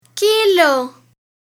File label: "Kilo", alfabeto aeronáutico